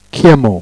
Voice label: "cliement", Jèrriais